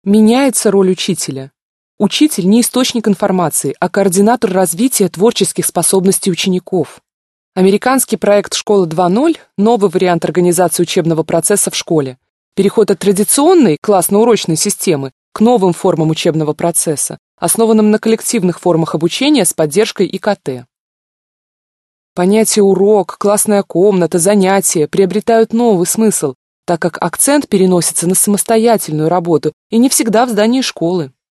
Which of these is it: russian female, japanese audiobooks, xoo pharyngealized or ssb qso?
russian female